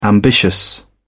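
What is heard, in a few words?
"ambitious", English, British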